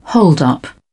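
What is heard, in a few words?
British English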